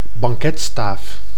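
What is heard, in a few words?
"banketstaaf", Dutch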